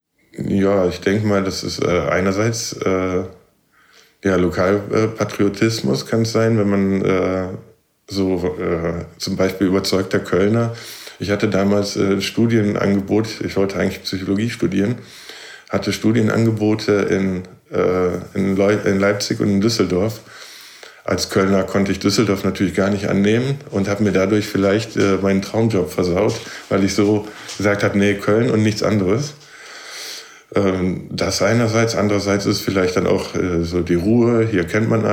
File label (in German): deutsch esperanto